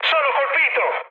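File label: Italian aircraft destroyed mood high, speech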